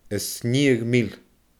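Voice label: "a snigheadh mil", Scottish Gaelic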